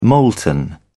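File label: "molten", British English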